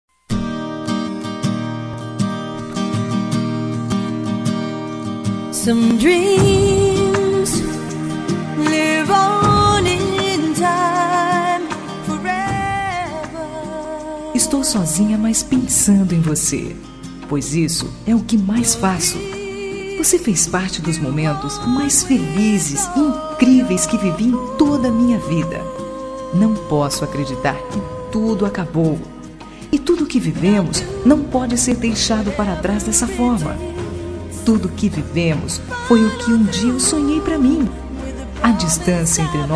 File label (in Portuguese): Romântica Com Reconciliação